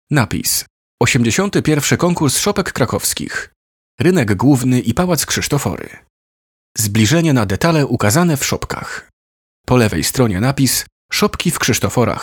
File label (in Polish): audiodeskrypcja